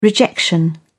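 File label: "rejection", British English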